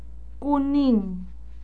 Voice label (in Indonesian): kuning, Indonesian